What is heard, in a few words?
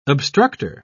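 "obstructor", British English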